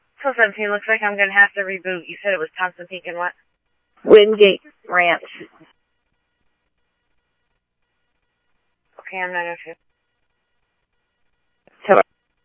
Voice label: Scottsdale Police, scanner audio; SCTSDLE PD - PD2; ThompsonPeakHigh